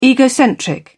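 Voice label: "egocentric", British English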